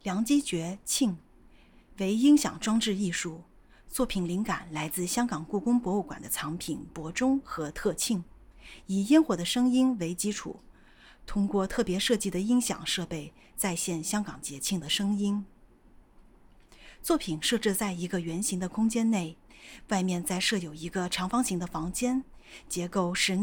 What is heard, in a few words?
AD VO, audio guide